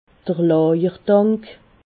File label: "lajertank", alsace